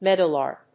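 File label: "meadowlark", English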